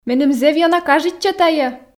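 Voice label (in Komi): меным зэв ёна кажитчӧ тайӧ, Komi, female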